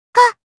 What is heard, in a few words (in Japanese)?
"Ha", Kawaii Voice, Reactions